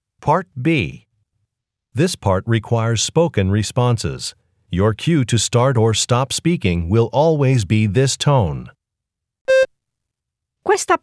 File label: italian directions